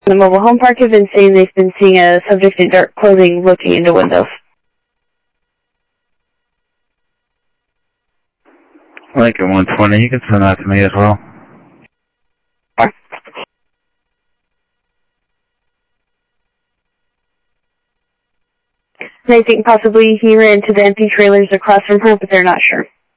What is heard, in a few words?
Sheriff scanner audio; South Scottsdale; MCSO - EAST; Sheriff-EastCnty